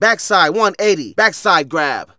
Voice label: Narration